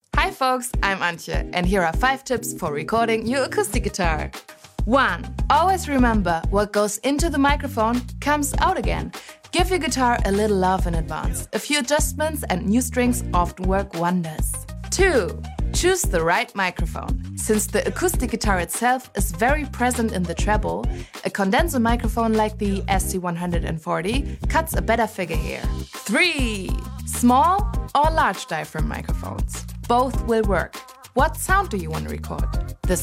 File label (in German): Moderation Englisch happy; LOFT VOICES